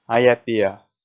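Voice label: "ая-пиа", Komi, male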